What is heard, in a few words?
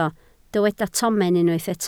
"tomen", Welsh